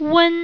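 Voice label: "wen", Chinese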